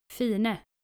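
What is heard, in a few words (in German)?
"fine", dänische Mädchennamen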